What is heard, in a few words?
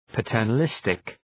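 "paternalistic", English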